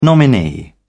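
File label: "nominee", British English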